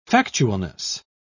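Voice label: "factualness", British English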